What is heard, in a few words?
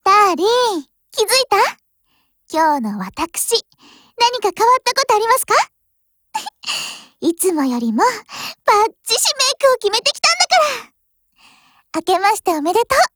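Japanese; Mk 23; New Year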